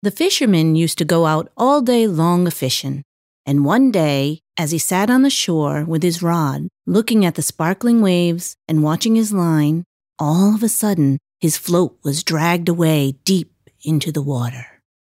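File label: English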